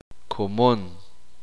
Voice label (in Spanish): uspanteko aldea